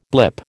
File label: "blip", American